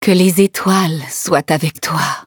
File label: levelup, fr; cookie run